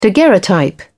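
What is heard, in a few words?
"daguerreotype", British English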